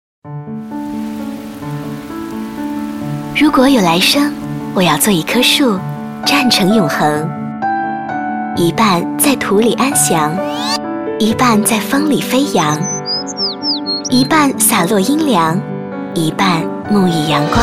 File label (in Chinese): 女 广告 片头片花 甜美; 音乐来了—绽放想象